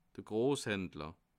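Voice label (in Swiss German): "grosshandler", Bas Rhin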